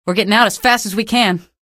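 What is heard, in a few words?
citizen evac ok, female; vo; npc; source engine; episodic; episode 1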